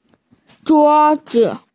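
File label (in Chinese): "zhuo1zi5", Mandarin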